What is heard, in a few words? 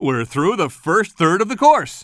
commentator